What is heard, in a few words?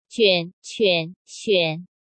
juan quan xuan 埍 犬 选, Chinese Pinyin; J, Q, X Sound